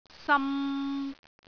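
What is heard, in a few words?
"sam3", Cantonese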